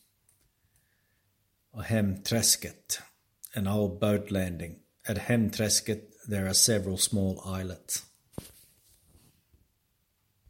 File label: English voice; Hemtrasket